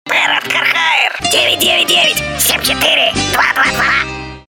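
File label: parody parrot; Popygai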